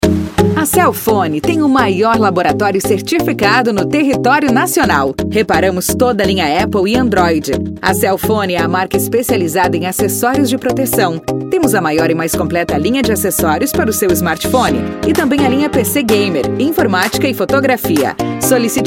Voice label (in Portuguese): comercial; cellphone